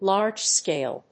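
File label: "large-scale", English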